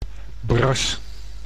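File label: "bras", Dutch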